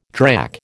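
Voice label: "drack", American English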